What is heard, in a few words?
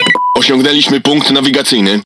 Speech Autopilot Checkpoint, PL; World Of Warships